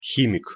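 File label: "himik", Russian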